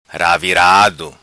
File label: "Ravirado", patua